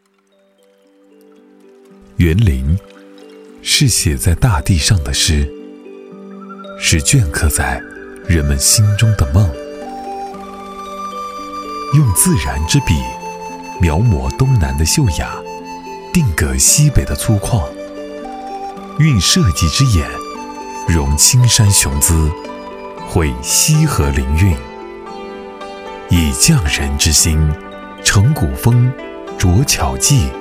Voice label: 男国 专题 企业 温情; 华天园林

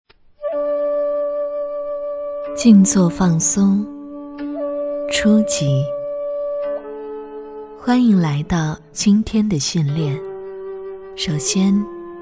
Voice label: 多媒体 培训课件 冥想 静坐脑放松; 女

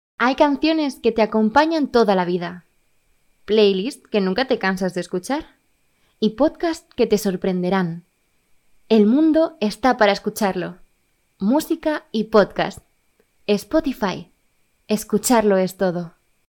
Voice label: 西班牙语女 外语 小语种

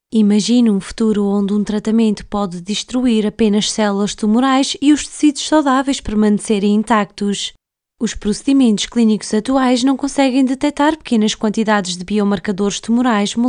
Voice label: 葡萄牙语女 外语

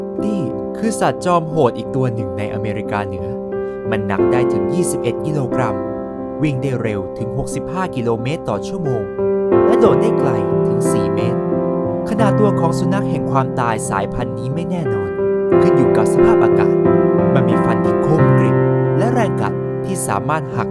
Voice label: 泰语男 外语 小语种

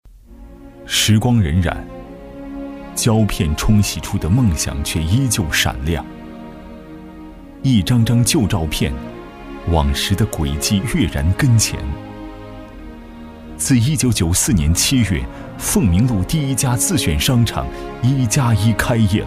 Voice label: 男国 专题 企业 磁性; 壹加壹25周年文案

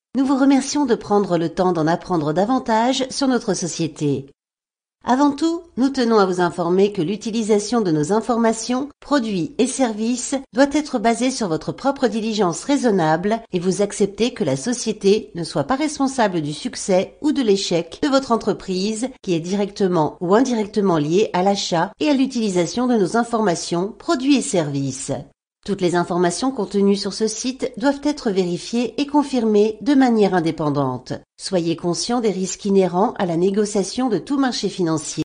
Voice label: 法语女, 外语, 小语种

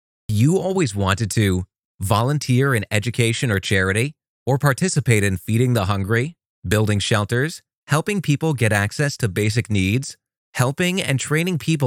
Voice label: 外籍男英 外语 美式英语 样音

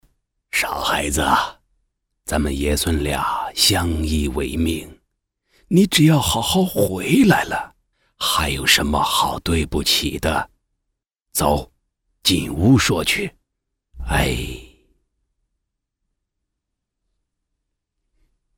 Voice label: 动画, 老人, 老人模仿

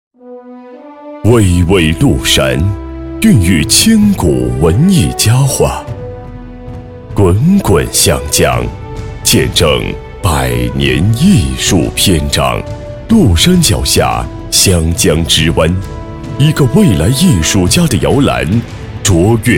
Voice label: 专题 企业 艺术培训 大气, 男国

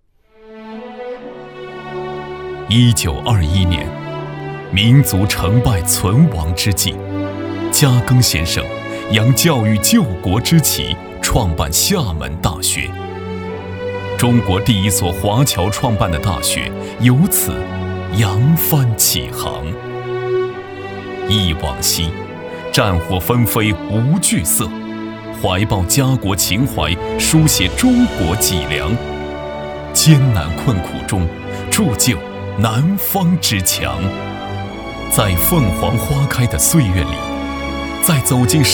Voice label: 男国 专题 学校 浑厚; 厦门大学

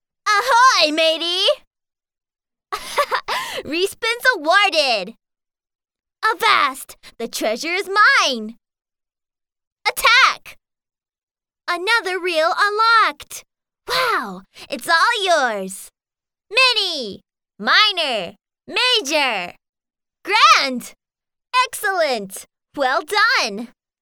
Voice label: 外籍女英 外语 美式英语 激昂小游戏

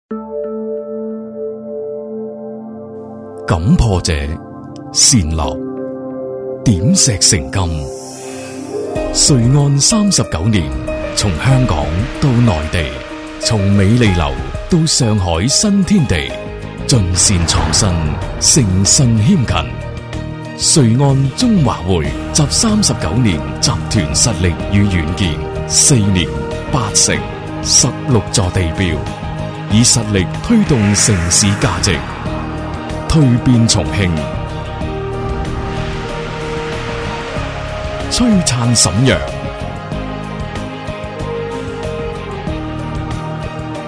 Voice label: 男粤 广告 地产 稳重; 粤语; 瑞安国际

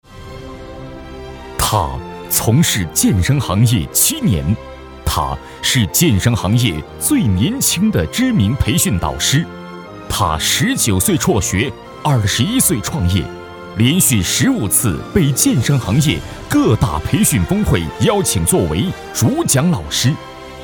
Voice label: 专题 人物 培训导师, 男国

